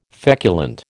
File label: "feculent", American